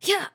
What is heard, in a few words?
attack, RPG Voice; RPG Voice Starter Pack